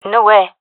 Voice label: negative, gendarmerie fem epic; vo; agents